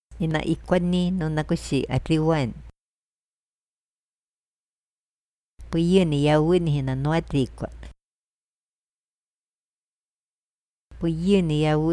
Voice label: iquito verbos